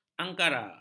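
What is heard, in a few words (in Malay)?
"angkara", Malay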